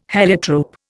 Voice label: "heliotrope", Indian

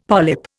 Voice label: "polyp", Indian; Dictionary

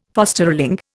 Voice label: "fosterling", Indian

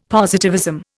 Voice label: "positivism", Indian; Dictionary

